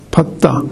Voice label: "patta", minamiyamagata